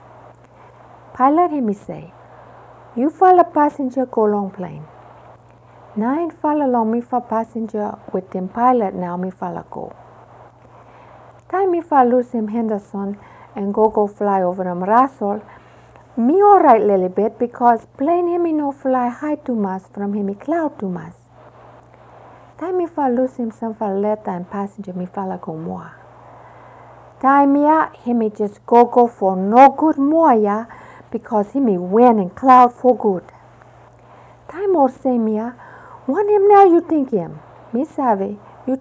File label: Solomon Island Pijin